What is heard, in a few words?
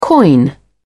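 "coin", British English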